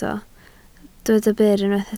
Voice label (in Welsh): "byr" Welsh